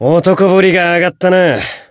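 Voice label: growth, Japanese; hardy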